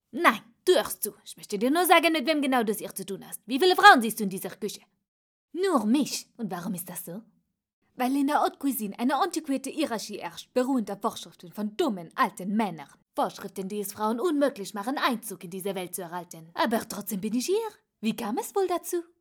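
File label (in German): Hoerspiel französischer Akzent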